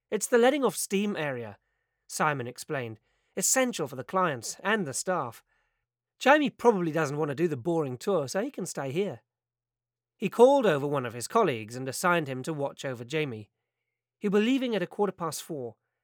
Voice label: Australian Accent